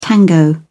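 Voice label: "tango", British English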